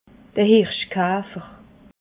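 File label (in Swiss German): "hirschkafer", Alsatian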